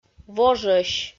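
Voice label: "вожӧсь", Komi, female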